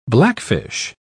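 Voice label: "blackfish", British English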